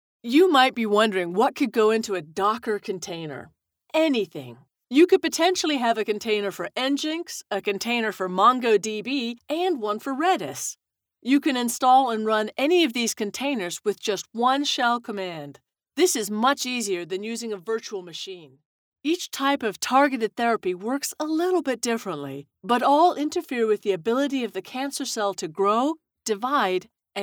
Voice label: E-learning

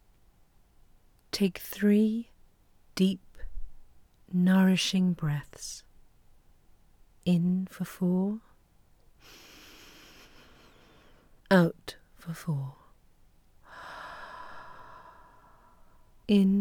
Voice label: Meditation

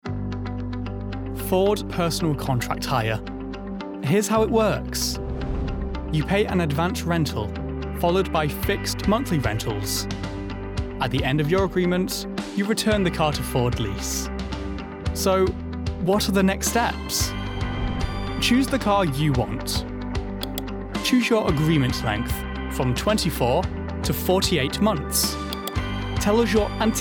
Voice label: ELearning

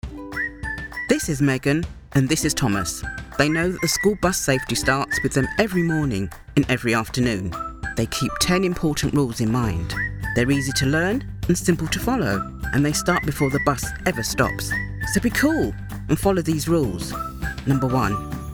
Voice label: Explainer

